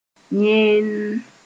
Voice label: "yẽnnn", moore